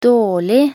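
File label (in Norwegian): "dårlig", Norwegian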